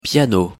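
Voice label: pronunciation "piano", French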